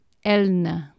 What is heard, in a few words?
"elne", Anglo Saxon